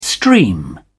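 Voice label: "stream", English, British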